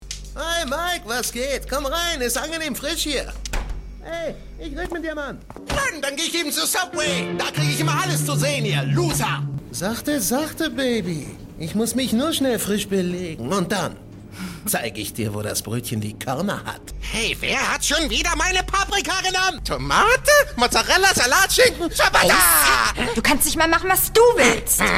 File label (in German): WERBUNG Subway Medley